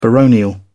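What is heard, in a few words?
"baronial", British English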